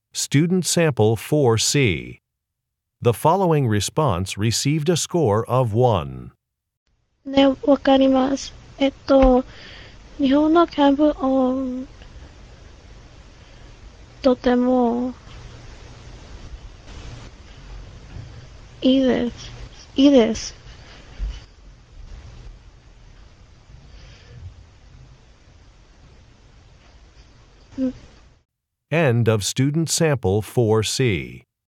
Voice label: japanese conversation